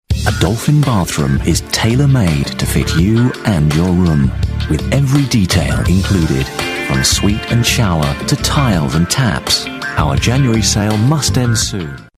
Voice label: Commercial; Dolphin bthrm; Vocal Point